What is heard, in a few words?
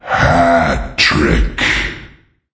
Voices Announcer Reward